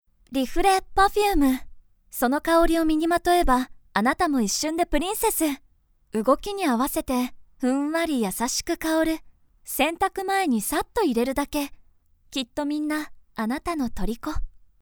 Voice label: female